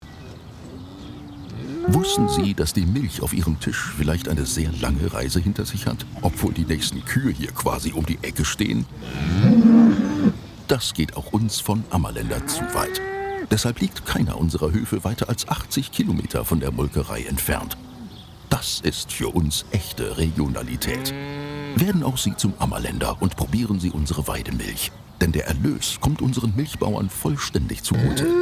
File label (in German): Werbung Funk dt; Ammerlaender; Viva Vision